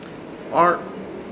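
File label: "Hõr", Chamacoco word, con; Ishir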